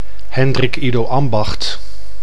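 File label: "Hendrik-Ido-Ambacht", Dutch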